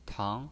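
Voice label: "tang", Mandarin Chinese pinyin, second tone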